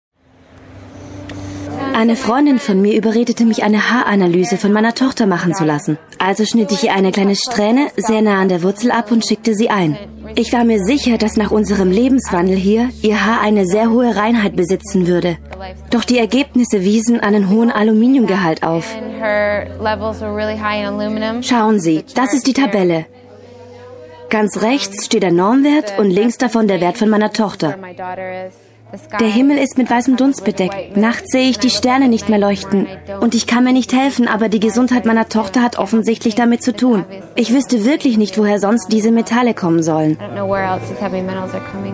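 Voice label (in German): german female narration; voice demos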